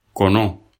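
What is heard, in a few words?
"koono", Bambara; animaux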